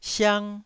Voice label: "siang1", taiwanese